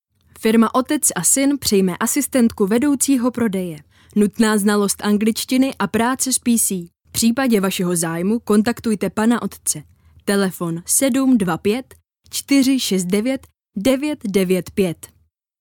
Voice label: Female, Czech